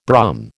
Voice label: "Brum", American